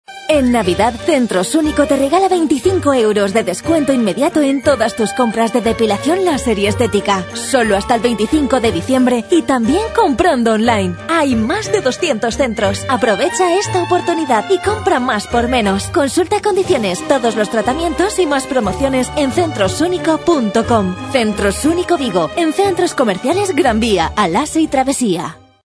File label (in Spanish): MUJER